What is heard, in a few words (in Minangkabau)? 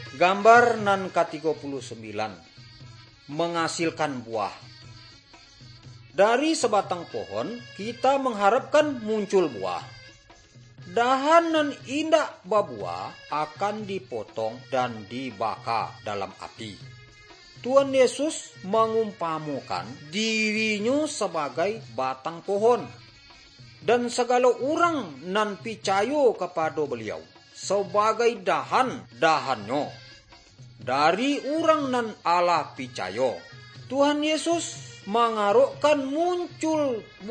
good news minangkabau